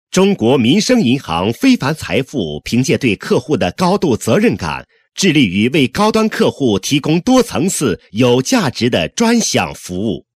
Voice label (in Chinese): 男 银行专题干音; 中国民生银行专题干音